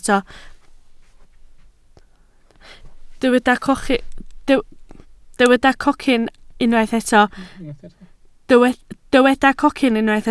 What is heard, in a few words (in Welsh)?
"cocyn", Welsh